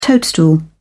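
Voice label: "toadstool", British English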